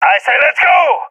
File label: request move, balkan; balkan epic